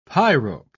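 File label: "pyrope", British English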